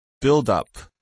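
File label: "buildup", English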